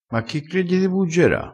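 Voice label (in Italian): "buggera", vocabolario dialettale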